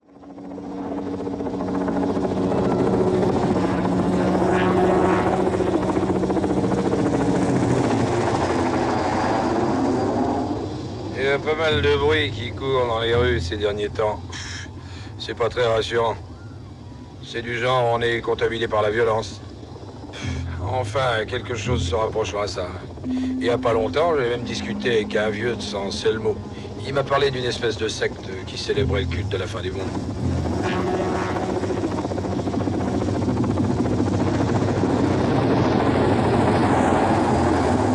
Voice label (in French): Discussion sous helico VF